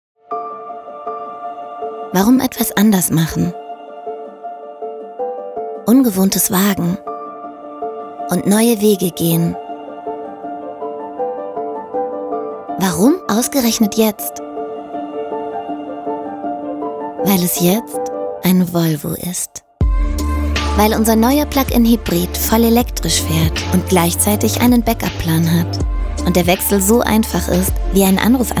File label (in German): Sprecherin, Werbung; Demo; Warum Jetzt?